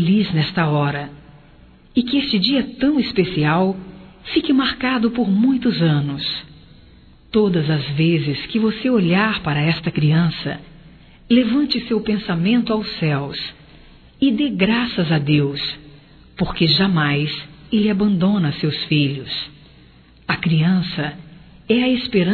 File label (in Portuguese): Parabéns Pelo Bebê Feminino, Telemensagens, Só Voz; Telemensagens 2017